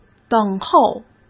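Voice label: "等候", Mandarin Chinese; chinese flashcards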